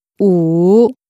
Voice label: Chinese Number 5